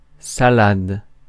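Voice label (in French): "salade", French; Mots francais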